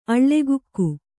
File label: "ಅಳ್ಳೆಗುಕ್ಕು", kannada